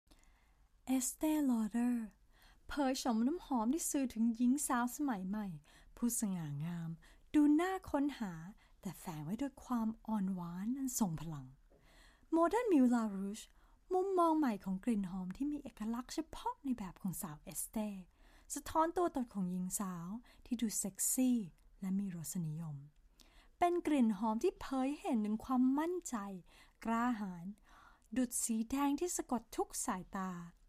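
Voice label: Thai General; GoLocalise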